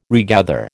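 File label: "regather", American English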